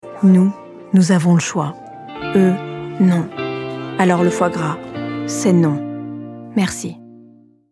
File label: FR film; petafrance